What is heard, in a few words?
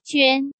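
"Juān", Chinese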